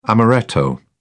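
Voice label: "amaretto", English, British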